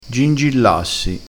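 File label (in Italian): "gingillassi", vocabolario dialettale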